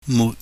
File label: "mut", Chinese